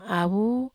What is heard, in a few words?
"ai avu", wamesa